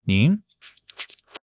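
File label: "您" Chinese; HSK1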